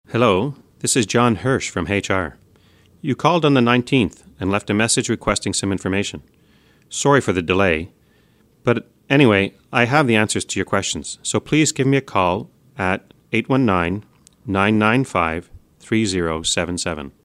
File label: voicemail message information from HR